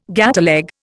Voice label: "gateleg", Indian